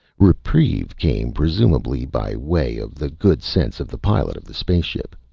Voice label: audiobook; giga-speech

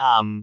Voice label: "aam4", cantone; google D

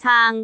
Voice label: "caang3", cantone

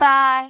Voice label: "baai3", cantone; microsoft Hiu Maan

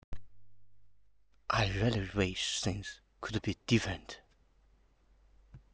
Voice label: emotion

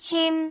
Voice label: "him1", cantone; microsoft Hiu Maan

